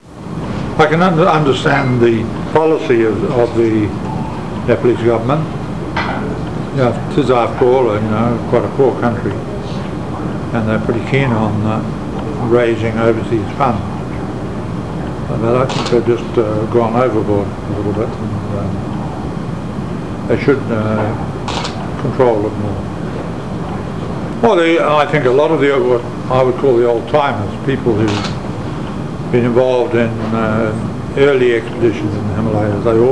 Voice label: commercial